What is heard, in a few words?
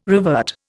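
"revert", Indian English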